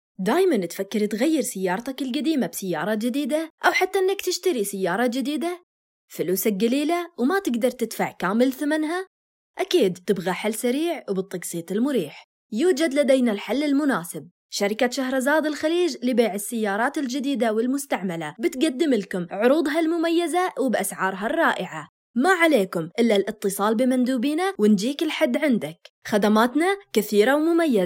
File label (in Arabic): اعلان لهجة خليجية